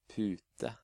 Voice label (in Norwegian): "Pute", Norwegian